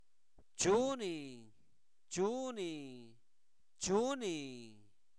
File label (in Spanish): Zapoteco Albarradas, santo domingo albarradas; INALI; preguntas; quién